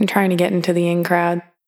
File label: "The In-Crowd", Vocal Samples, Words